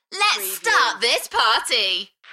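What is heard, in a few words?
UK female, Enthusiastic, DJ vocals; Lets start this party; beanstalk audio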